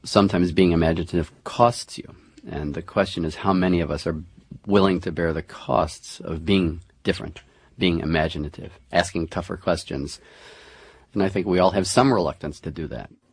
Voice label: cost of being imaginative, male spoken word; MALE MISC